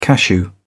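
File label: "cashew", British English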